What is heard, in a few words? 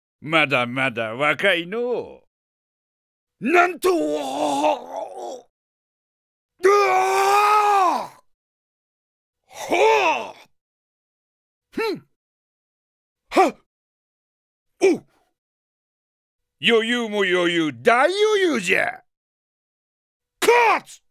character voice; D-XHIRD; KOHJIN